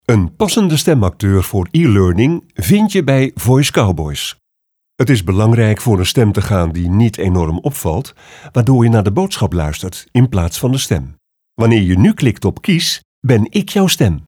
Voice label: ELEARNING